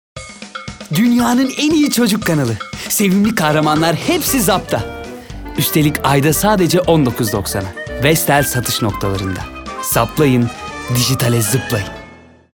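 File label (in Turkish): kampanya tempolu, seslendirme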